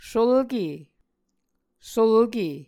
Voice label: "sulke", Muscogee

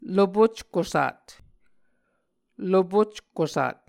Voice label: "lopockusat", Muscogee

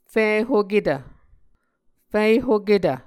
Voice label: "fihoketv", muscogee

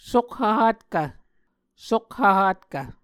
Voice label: "sokhv-hatkv", Muscogee

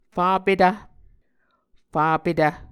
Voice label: "fapetv", muscogee

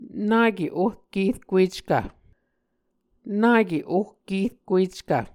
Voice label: "nake ohkerkueckv", Muscogee

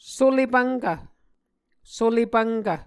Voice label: "sule-pvnkv", Muscogee

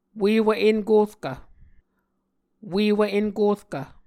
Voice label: "uewv enkorkv", Muscogee

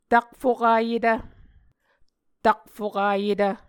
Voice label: "takfokayetv", Muscogee

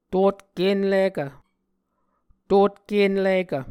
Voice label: "totk enlikv", Muscogee

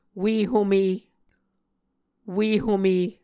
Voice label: "ue-home", muscogee

